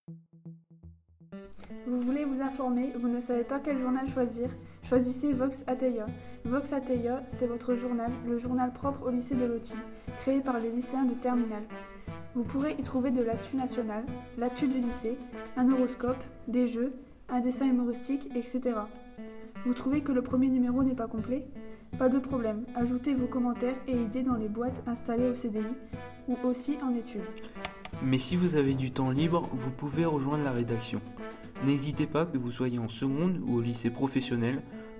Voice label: webradio pub vox

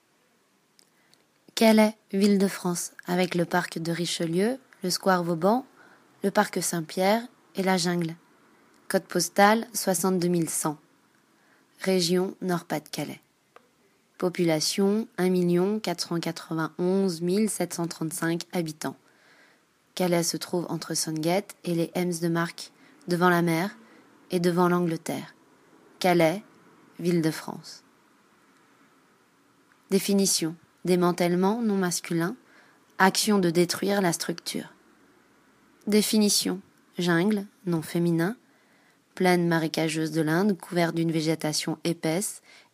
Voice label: News; Calais

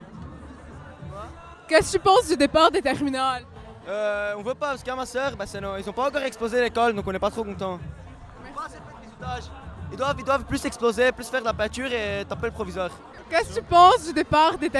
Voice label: micro trottoir

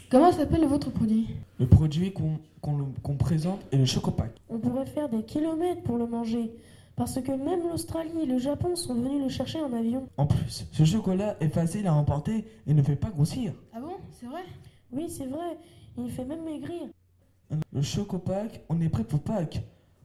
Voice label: pub chocolat

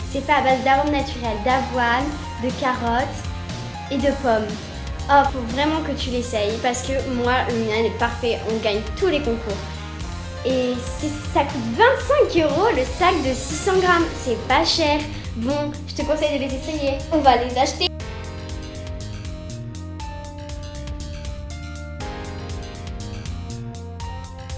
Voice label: pub chevaux

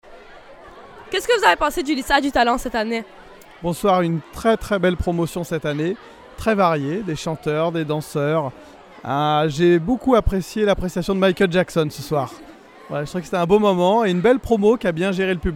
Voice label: avis adulte

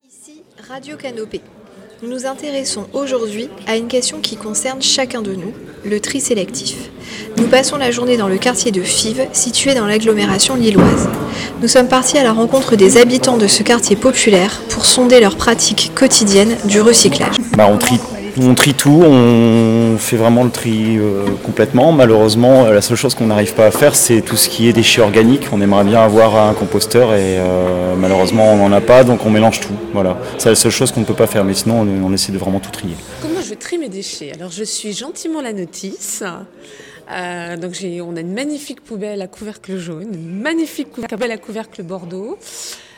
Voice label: micro trottoir

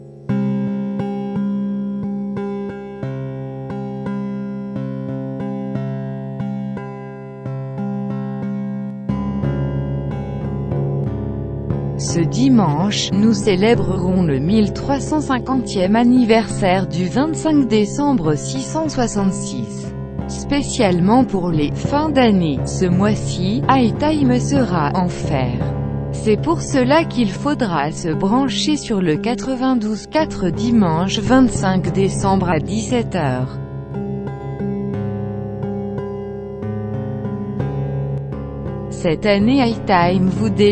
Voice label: annonce

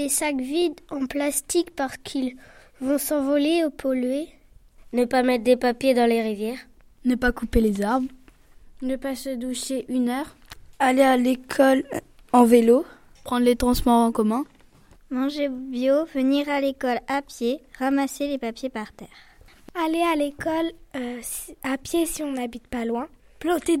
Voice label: micro trottoir gestes pour environnement

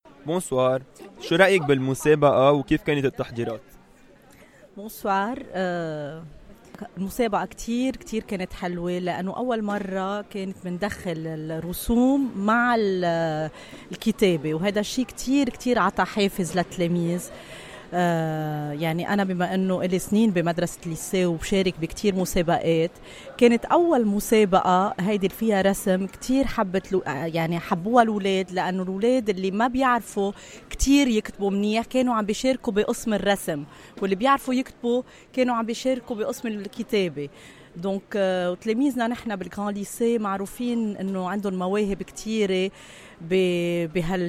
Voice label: micro trottoir prof

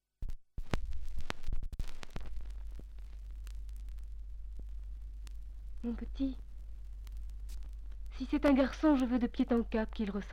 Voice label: poemes batterie et contrebasse

